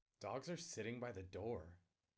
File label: disgust